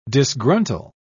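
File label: "disgruntle", British English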